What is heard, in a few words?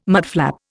"mudflap", Indian